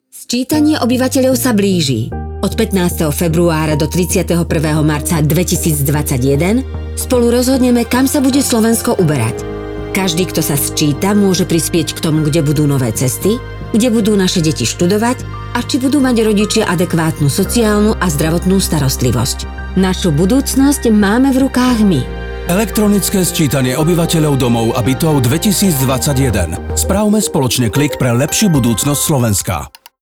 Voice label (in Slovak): miestny rozhlas